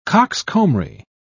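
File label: "coxcombry", British English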